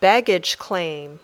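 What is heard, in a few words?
"baggage claim", English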